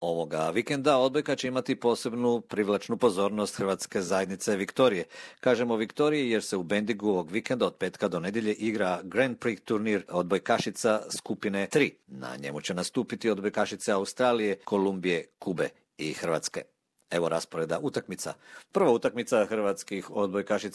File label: croatian; croatian womens volleyball team plays grand prix in bendigo